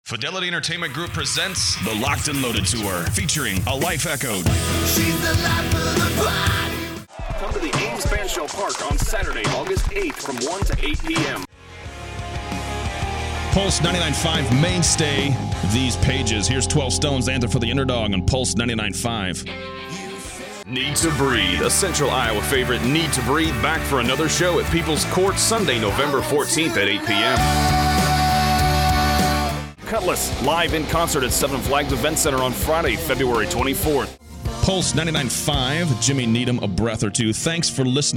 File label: Voice Over